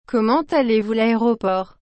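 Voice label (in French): "Comment allez-vous", French; laeroport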